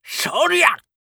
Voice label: oldman attack